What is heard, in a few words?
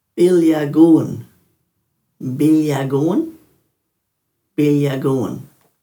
"bilyaguwin-", Dhurga